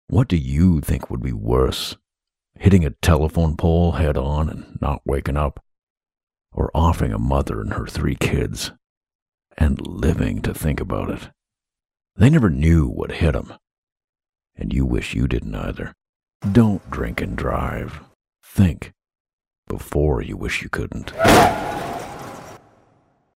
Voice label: drunk driving, commercials